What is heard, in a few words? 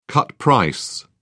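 "cut price", British English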